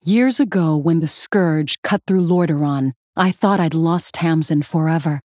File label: Female Human